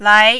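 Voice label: "lai", Mandarin, second tone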